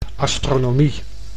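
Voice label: "astronomie", Dutch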